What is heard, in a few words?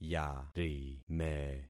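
"yarime", concatenated syllables; Affixing experiment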